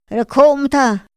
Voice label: "ra kjoftha", Otomí; Días de la semana; INPI; INALI

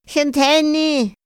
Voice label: "xa n´thëni", Otomí; Días de la semana; INPI; INALI

